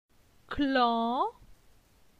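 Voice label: "Clan", French; phonetique; la syllabe an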